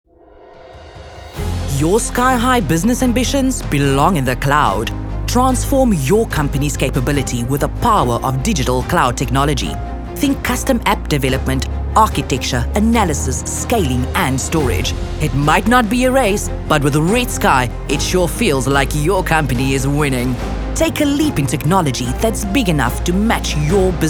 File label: Medium dramatic vo; South Africa